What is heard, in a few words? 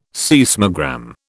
"seismogram", British